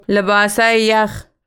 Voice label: dari Cool washcloth